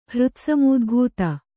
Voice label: "ಹೃತ್ಸಮೂದ್ಭೂತ", Kannada

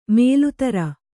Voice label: "ಮೊಲೆತೊರೆ", kannada

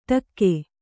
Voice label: "ಡಾಕು", Kannada

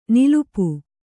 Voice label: "ನಿಲಿಪು", Kannada

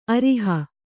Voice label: "ಅರಿಹ", kannada

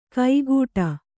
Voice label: "ಕೈಗೂಟ", kannada

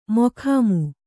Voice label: "ಮೊಖಾಮು", Kannada

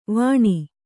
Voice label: "ವಾಣಿ", Kannada

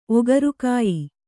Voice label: "ಒಗರುಕಾಯಿ", Kannada

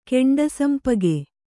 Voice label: "ಕೆಂಡಸಂಪಗೆ", Kannada

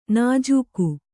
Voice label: "ನುಜುಗು", Kannada

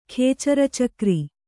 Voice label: "ಖೇಚರಚಕ್ರಿ", kannada

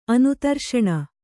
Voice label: "ಅನುತರ್ಷಣ", kannada

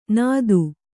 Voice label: "ನಾದು", Kannada

